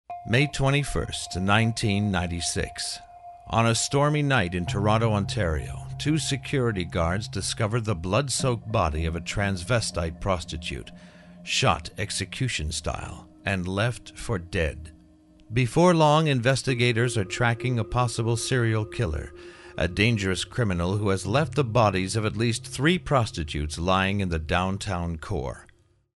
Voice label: Documentary Read, audio narration